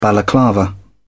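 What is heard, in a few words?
"balaclava", British English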